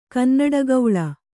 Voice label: "ಕನ್ನಡಗೌಳ", Kannada; kannaḍagauḷa; noun

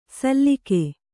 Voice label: "ಸಲ್ಲಿಕೆ", Kannada

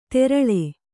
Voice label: "ತೆರಳೆ", Kannada

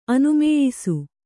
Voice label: "ಅನುಮೇಯಿಸು", Kannada

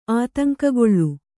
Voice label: "ಆತಂಕಗೊಳ್ಳು", Kannada

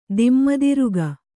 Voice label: "ದಿಮ್ಮುದಿರುಗ", Kannada

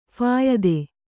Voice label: "ಫಾಯಿದೆ", kannada

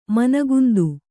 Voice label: "ಮನಗುಂದು", Kannada

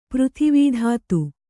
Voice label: "ಪೃಥಿವೀಧಾತು", Kannada

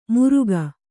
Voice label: "ಮುರುಕ", Kannada

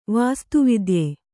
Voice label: "ವಾಸ್ತುವಿದ್ಯೆ", Kannada

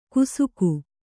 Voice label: "ಕುಸುಕು", Kannada

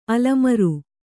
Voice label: "ಆಲಮರು", Kannada

